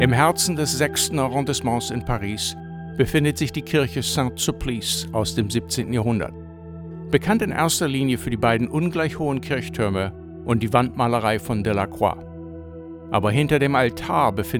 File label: German Narration